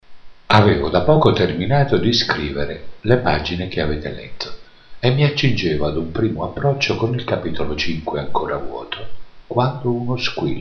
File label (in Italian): AUDIOLIBRO; Per Aurora volume terzo; Così o come